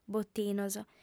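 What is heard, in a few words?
"botinos", female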